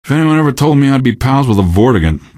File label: question, male; Garry's Mod; hl2